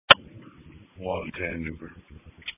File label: Police Patrol 1 (Dispatch)